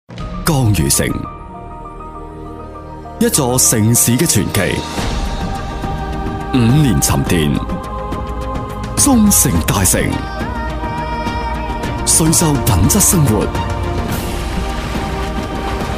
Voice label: cantonese voice talent chino cantones, locutor chino cantonés